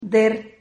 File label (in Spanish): "der", Silabas